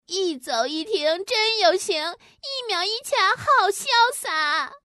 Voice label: woman; guizhou